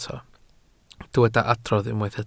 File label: "adrodd", Welsh